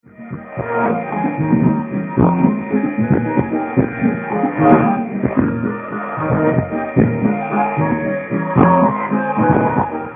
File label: Voice of Nigeria, french, 15120 KHz; Lagos, Nigeria